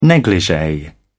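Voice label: "negligee", British English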